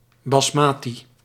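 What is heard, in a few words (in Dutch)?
"basmati", Dutch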